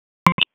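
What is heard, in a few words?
EMS Dispatch, p25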